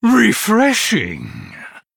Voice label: Male Blood Elf Trigger

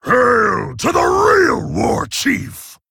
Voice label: Male Orc

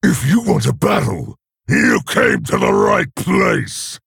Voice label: male orc, Bark

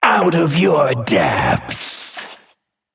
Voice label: Male Naga Play

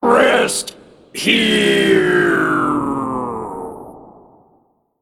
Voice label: Male Mech Play

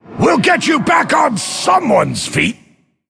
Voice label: Male Forsaken Play

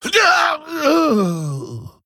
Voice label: Male Pandaren Death

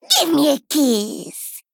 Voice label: Female Gnome Attack

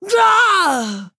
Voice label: Female Orc Death

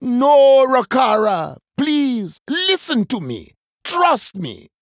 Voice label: Male Troll Victory Post Explosion

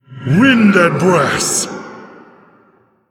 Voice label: Female Elemental Play

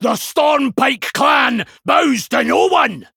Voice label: Male Dwarf Threaten

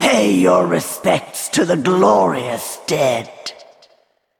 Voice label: Female Val'kyr HAPPY HALLOWEEN

